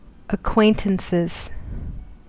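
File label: "acquaintances", US English; pronunciation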